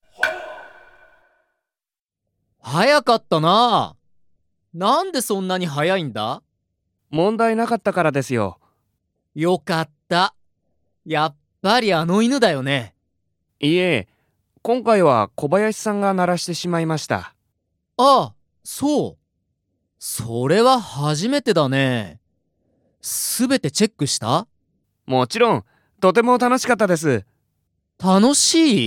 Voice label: dialog; JapanesePod101; Beginner Season 1